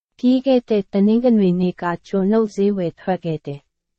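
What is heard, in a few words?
Burmese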